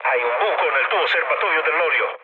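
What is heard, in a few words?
Italian damaged oil mood low, speech; War Thunder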